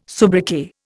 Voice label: "soubriquet", Indian